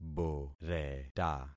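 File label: "boreta", concatenated syllables; Affixing experiment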